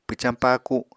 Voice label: "pwcañ paaku", Apatani; Household Items